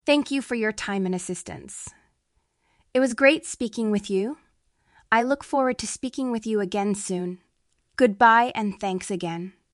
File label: Telephone talk